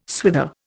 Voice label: "swither", Indian